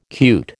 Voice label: "cute", American

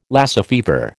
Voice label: "Lassa fever", American

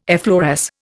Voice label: "effloresce", Indian

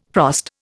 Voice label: "frost", Indian

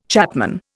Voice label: "chapman", Indian

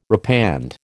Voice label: "repand", American

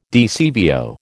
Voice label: "DCVO", American

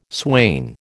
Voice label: "swain", American

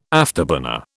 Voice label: "afterburner", British

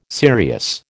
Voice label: "serious", American

